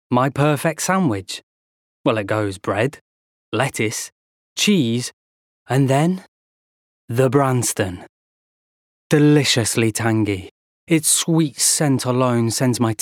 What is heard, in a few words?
Commercial